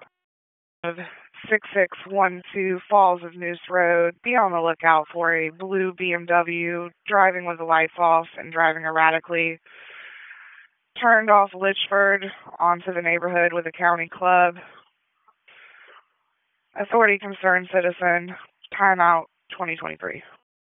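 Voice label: Police Dispatch, p25; Raleigh Police Northeast District Disp; wake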